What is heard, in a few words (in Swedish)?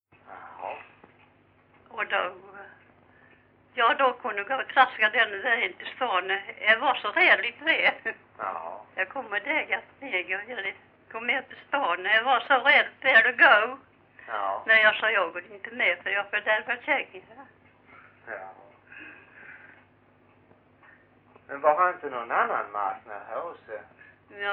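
Grimeton Halland, dialekter; Folkminnen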